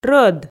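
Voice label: "рӧд", Komi, female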